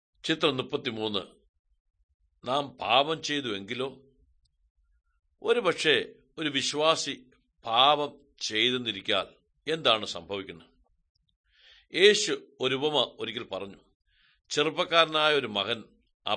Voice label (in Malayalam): good news malayalam, drama